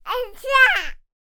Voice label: human baby toddler male 15 months vocal "what's that"; sound effects one